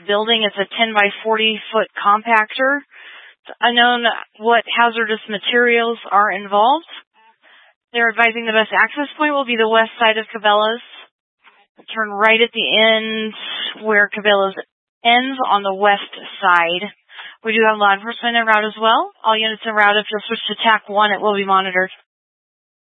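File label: Fire Dispatch, scanner audio recording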